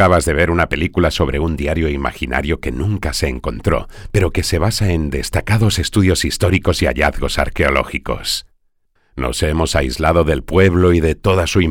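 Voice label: Spanish VO, Locuciones Profesionales para audioguías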